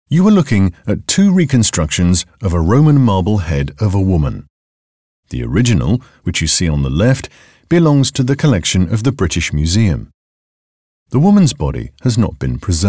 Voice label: Audio Guide Samples